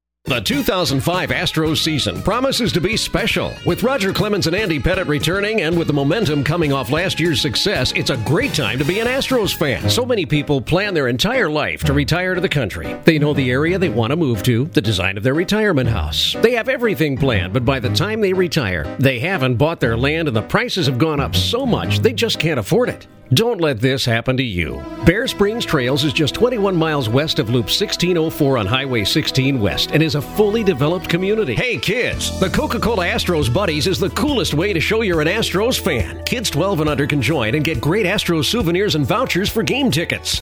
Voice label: Voice-over